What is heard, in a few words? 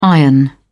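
"iron", British English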